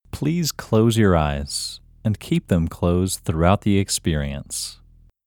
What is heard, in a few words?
Male